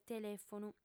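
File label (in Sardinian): "telèfonu", Sardinian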